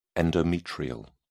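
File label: "endometrial", British English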